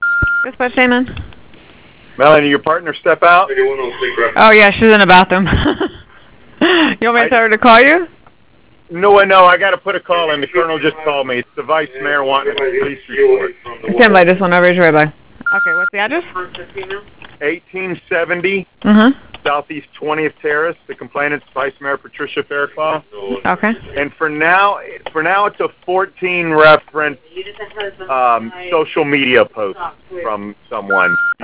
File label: dispatch phone